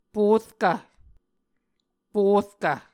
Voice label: "porkv", Muscogee

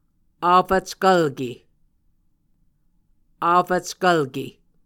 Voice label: "afackvlke", Muscogee

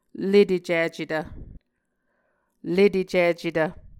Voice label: "letecicetv", Muscogee

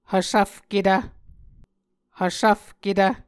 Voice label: "hvsvfketv", Muscogee

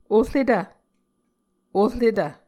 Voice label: "oretv", Muscogee

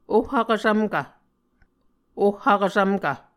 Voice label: "oh vkvsvmkv", Muscogee

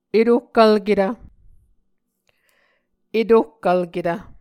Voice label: "etohkvlketv", muscogee

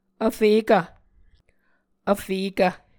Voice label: "vfekv", Muscogee

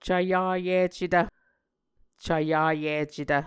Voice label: "cvyayicetv", muscogee; Mvskoke Words with Voicing Consonants; A Dictionary of Creek/Muskogee; SIL International

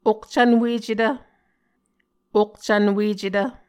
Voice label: "okcvnwecetv", Muscogee

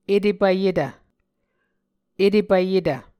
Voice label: "etepvyetv", muscogee

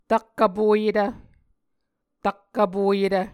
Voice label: "tak vpoyetv", Muscogee

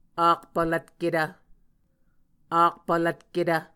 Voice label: "akpvlvtketv", muscogee

